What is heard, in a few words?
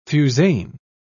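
"fusain", British English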